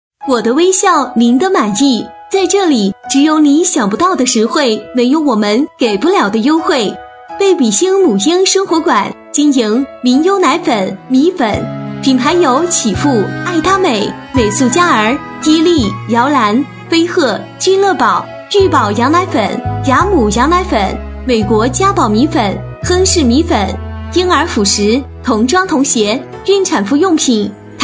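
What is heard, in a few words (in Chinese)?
女声母婴馆; 贝比星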